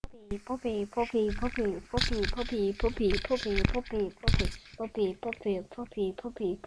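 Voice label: "puppy", spoken word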